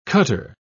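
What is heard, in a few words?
"cutter", British English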